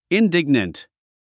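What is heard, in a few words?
"indignant", English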